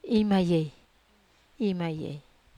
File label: "maye", Wamesa